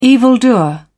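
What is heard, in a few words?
"evildoer", British English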